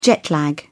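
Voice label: "jet lag", British English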